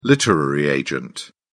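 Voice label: "literary agent", British English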